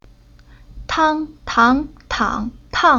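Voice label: "Tang", Chinese syllable; Уроки фонетического блока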